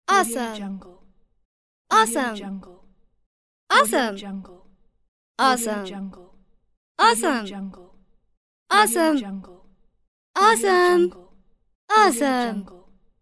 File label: girl saying awesome; kazoomx